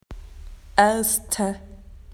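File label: "first", Australian English; PictureDictionary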